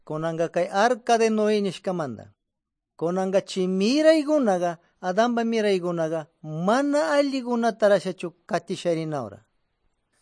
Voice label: good news quichua de pastaza